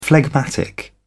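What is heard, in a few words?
"phlegmatic", British English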